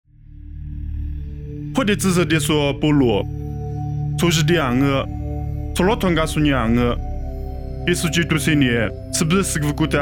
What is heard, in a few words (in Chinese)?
凉山彝语; 地中海加拉太; nuosu